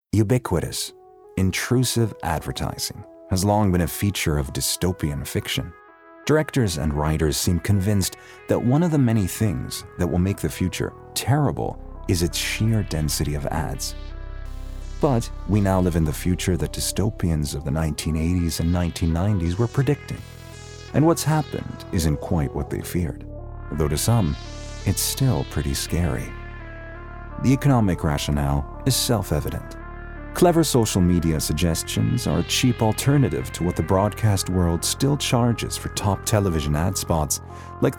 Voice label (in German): US DOKUMENTARFILM